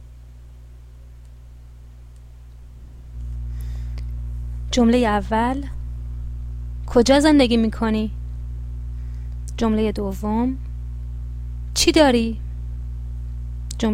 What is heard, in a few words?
pronunciation, Persian